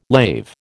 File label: "lave", American